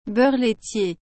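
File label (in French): "beurre laitier", French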